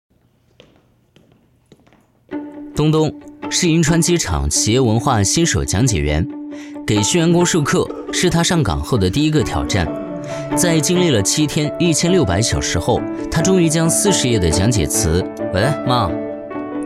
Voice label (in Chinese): 男 专题 人物介绍 年轻自然